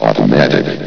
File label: "automatic", vox; Source Engine; bshift